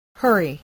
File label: "hurry", voice spelling